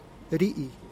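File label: "rii", Teotitlán del Valle Zapotec; chasuel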